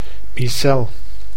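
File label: "micel", Dutch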